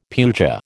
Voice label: "puja", American

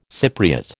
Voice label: "Cypriot", American

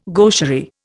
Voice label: "gaucherie", Indian

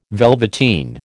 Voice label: "velveteen", American

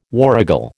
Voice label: "warragal", American

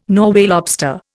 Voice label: "Norway lobster", Indian

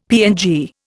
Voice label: "PNG", Indian; Dictionary

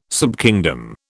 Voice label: "subkingdom", British

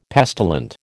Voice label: "pestilent", American

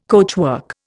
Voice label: "coachwork", Indian

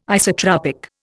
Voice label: "isotropic", Indian

